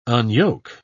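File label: "unyoke", English, British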